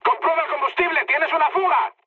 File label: tank damaged, mood high, speech; War Thunder